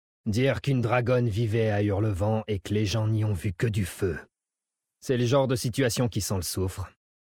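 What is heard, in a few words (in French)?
French Male Blague; Hurlevent; Dracthyr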